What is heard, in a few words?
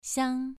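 "xiang", Mandarin Chinese syllable, second tone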